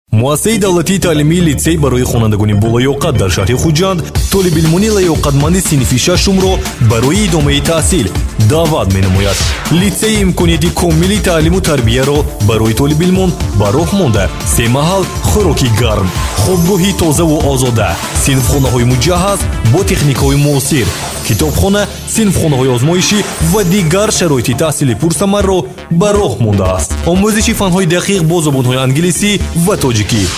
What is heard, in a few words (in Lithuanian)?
(VYR) Uzbeku balsas; vyras